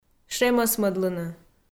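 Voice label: "шемӧсмӧдлыны", Komi, female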